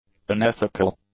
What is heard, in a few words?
"unethical", english; adjectives